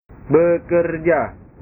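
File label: "bekerja", Indonesian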